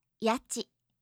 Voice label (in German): "yachi", japanische